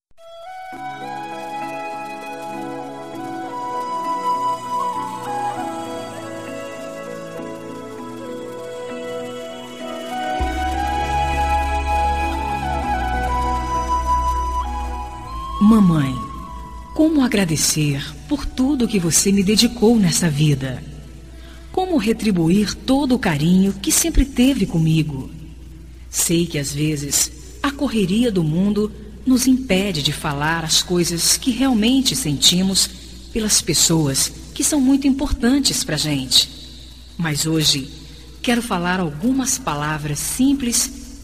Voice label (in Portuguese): telemensagem dia das maes voz feminina